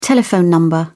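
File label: "telephone number", British English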